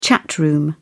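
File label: "chat room", British English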